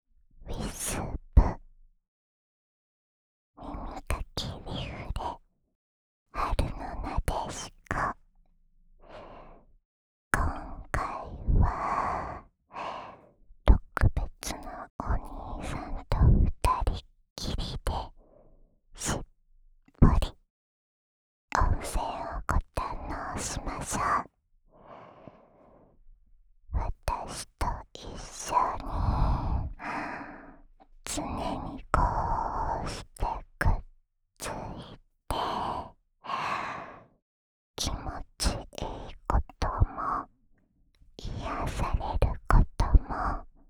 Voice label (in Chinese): 標題朗讀